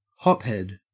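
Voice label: "hophead", Australian English; pronunciations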